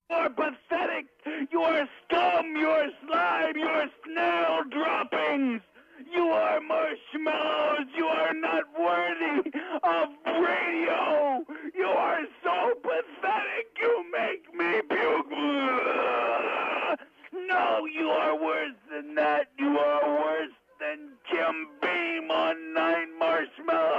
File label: angry man